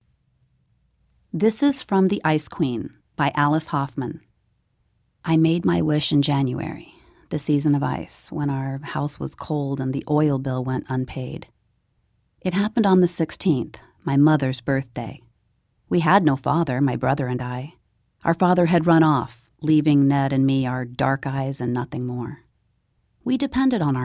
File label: audiobook; The Ice Queen